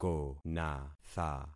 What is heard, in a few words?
"konatha", concat syllables; Affixing experiment